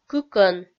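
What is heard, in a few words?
"кыкӧн", Komi-Zyrian, female; kpv audio dict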